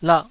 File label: "lah2", taiwanese syllables